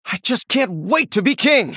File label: male human emote